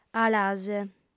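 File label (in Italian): "alase", sarda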